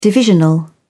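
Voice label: "divisional", British English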